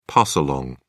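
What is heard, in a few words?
"pass along", British English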